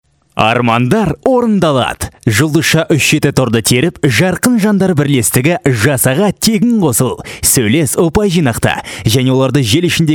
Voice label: kazakh male